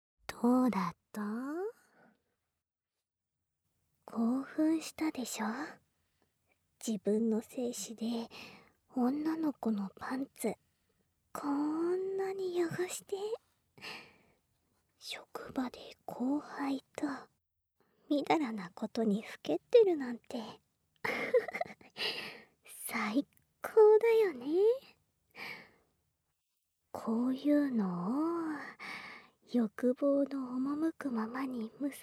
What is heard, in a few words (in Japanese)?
反転, ASMR